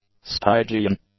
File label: "stygian", English; adjectives